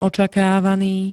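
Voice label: "očakávaný", Slovak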